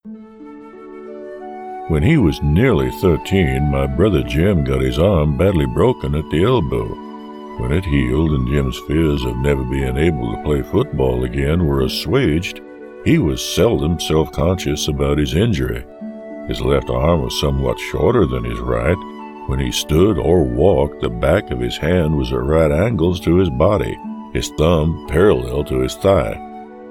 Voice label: Older Southern Man